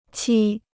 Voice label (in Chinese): "漆", Chinese